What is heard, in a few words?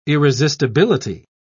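"irresistibility", British English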